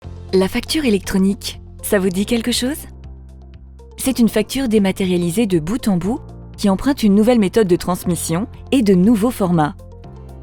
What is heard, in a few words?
VO Motion design; La facture electronique